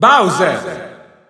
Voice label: Italian Announcer; SSBU